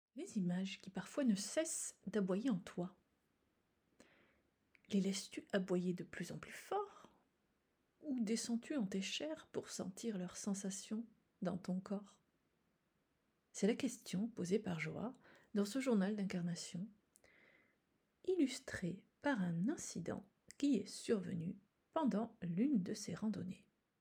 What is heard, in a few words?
trailer